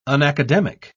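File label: "unacademic", British English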